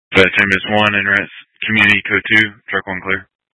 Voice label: Grand Junction Fire Department Dispatch